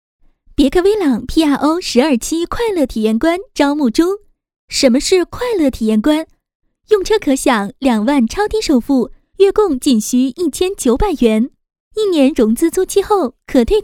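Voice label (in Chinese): 广告配音时尚明朗清新; 别克威朗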